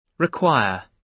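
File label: "require", British English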